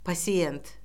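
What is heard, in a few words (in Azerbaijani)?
"pasiyent", Azerbaijani; Orfoqrafiya Lüğəti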